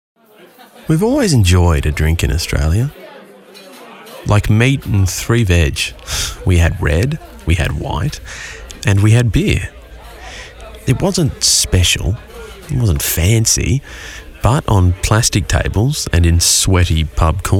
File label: Coorporate Heartfelt